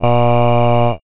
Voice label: male "A"